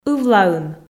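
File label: "ывлаын", Komi, female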